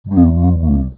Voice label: monster mumble